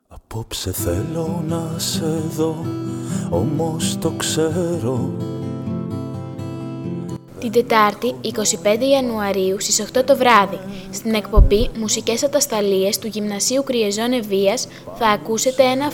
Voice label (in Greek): σποτ; πρώτη εκπομπή στο european schoola radio